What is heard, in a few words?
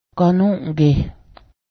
hell, Cayuga